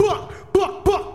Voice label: "buck buck buck", ooh ahh